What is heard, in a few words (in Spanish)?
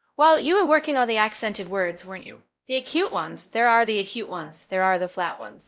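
Voice Acting, es, female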